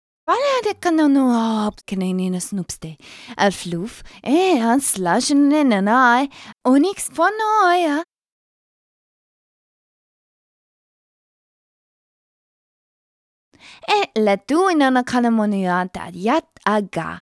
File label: talk Casual; Singles; French Version